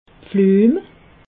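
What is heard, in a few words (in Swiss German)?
"pflum", Alsatian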